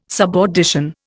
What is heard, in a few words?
"subaudition", Indian